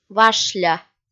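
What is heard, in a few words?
"вашля", Komi, female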